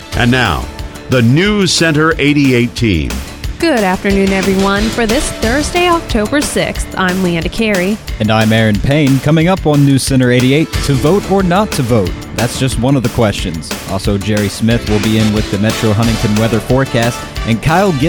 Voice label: Radio newscast